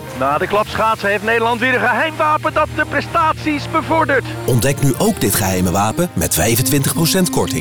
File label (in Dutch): Radio spot; M-line; Geheim Wapen